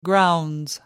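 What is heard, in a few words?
"grounds", British English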